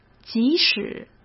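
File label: "即使", Mandarin Chinese; chinese-flashcards; hsk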